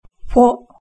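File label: "fo", Japanese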